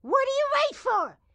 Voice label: grandma chopper cmon; Blind Drive